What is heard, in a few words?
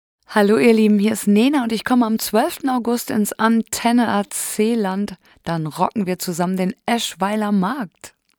Radiospot